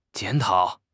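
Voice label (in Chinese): "检讨", Chinese; HSK6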